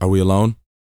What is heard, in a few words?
talk, sci-fi, vocal; ILIO; Vocal Planet